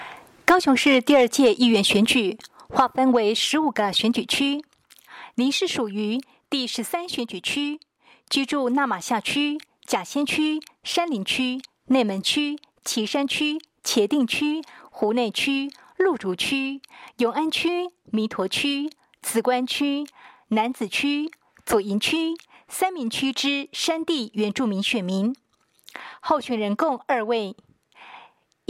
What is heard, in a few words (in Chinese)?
國語; 高雄市; 高雄市市長議員有聲選舉公報; 第十三選區